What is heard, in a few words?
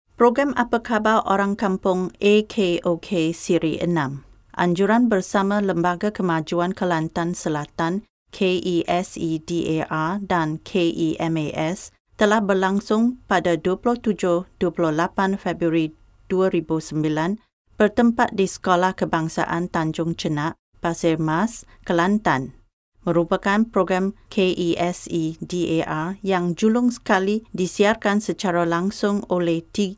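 Malay, Malaysia, female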